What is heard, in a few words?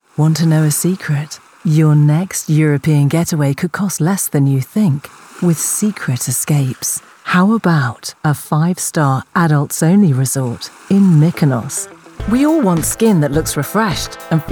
Commercial Showreel